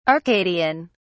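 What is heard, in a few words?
"arcadian", British English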